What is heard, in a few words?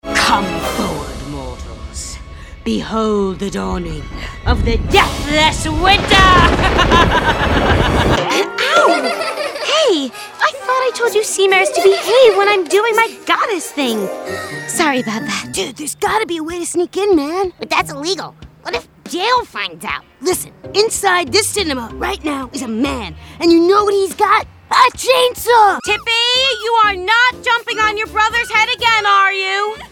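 animation female, Voice Over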